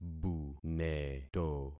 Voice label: "buneto", concat syllables; Affixing experiment